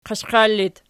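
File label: "qiashqiar" locative, Archi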